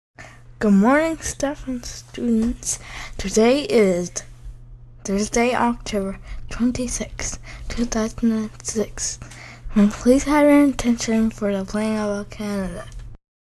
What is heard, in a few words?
announcement